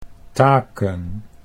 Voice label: "taken", Dutch

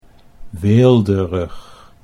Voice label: "weelderig", Dutch

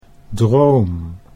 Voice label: "droom", Dutch

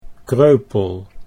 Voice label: "kreupel", Dutch

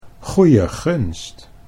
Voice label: "goe je gunst", Dutch

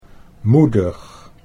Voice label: "moedig", Dutch

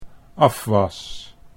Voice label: "afwas", Dutch

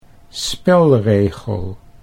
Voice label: "spelregel", Dutch

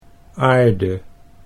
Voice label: "aarde", Dutch

